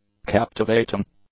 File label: "captivating", English; adjectives